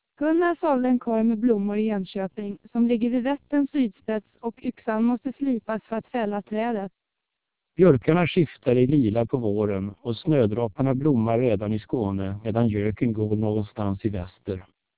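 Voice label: twelp 1600 Swedish speech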